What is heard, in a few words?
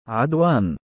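"3adwaan" male, Arabic; عَدْوَان; ‘adwān; アドワーン; Adwan、Adwaan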